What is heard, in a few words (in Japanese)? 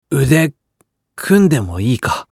恋愛系ボイス; 腕を組んでいい？